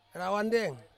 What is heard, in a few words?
"rawandeng", Ansus; evening